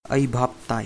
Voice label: understand, manipuri